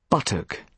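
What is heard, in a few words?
"buttock", British English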